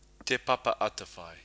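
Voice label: "te papa atawhai", Māori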